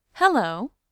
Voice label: Hello Female Friendly

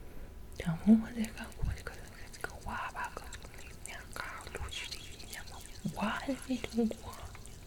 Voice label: Whispers

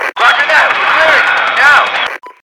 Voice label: Radio Chatter Roger We're Pulling Back

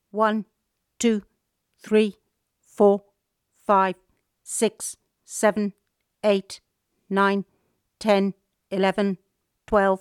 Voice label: Numbers English female

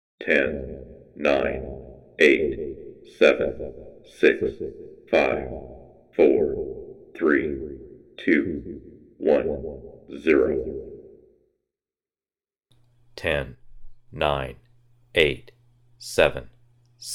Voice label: Countdown Nasa Style Remix

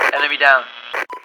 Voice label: Radio Chatter Enemy Down

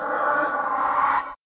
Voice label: Ghost Voice

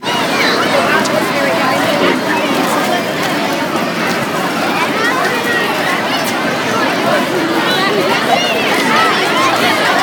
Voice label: Children Talking And Playing

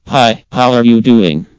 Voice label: Hi How Are You Doing Computer

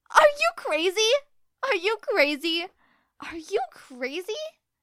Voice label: Are You Crazy Questioning

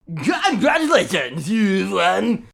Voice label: Announcer Male Congratulations You've Won; Sci Co Films

